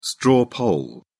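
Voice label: "straw poll", English, British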